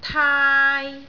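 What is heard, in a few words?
"teoi3", Cantonese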